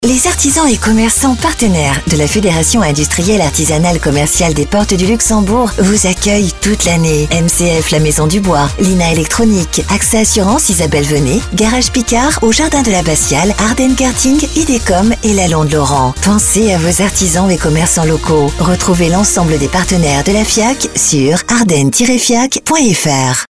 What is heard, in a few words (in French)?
Spot; PORTES DU LUXEMBOURG; Fiac octobre 2024